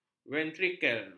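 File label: "ventrikel", Malay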